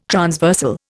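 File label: "transversal", Indian English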